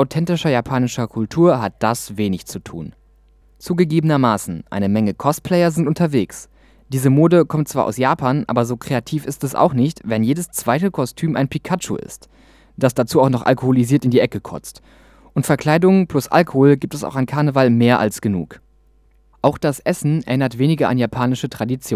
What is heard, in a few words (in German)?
Japantag Kommentar